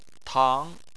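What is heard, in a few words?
"tang", Mandarin Chinese, second tone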